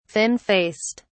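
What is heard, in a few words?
"thin faced", British English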